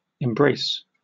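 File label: "embrace", British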